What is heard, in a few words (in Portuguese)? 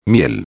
"miel", espanhol